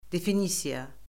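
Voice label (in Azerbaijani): "definisiya", Azerbaijani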